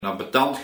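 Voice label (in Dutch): "Abetand", dialect; Vervelend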